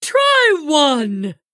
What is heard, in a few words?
Female Tortollan Attack